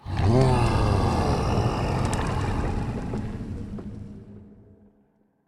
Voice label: Voice Negative Surprise; Candlegeon Voice NegativeSurprise

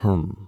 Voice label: Bufo voice

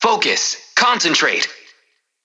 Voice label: VO Classic Positive

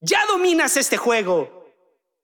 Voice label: Extreme Positive

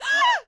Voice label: Enemy Generic Human Spawn Disbelief

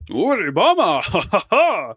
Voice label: Impressed; Hollow Knight; Dung Def Impressed

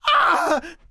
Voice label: Enemy Generic Human Spawn Disbelief

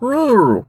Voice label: Dream reader voice

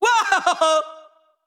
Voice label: Extreme Yeow

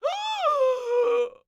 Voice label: Enemy Generic Human Spawn Disbelief

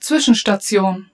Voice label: German, Waypoint

